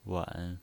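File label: "wen", pinyin voice, third tone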